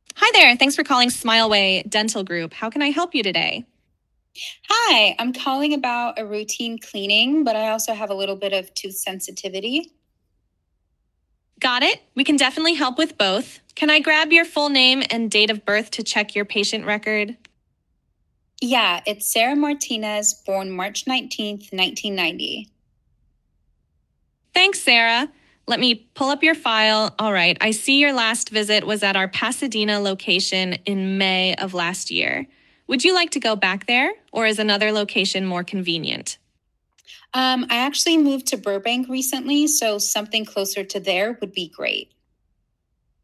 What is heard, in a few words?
receptionist